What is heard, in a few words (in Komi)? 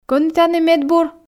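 кӧні тані медбур ..., Komi, female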